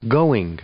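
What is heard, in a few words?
"going", English; verb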